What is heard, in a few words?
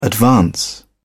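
"advance", British English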